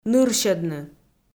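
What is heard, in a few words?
"нырсьӧдны", Komi, female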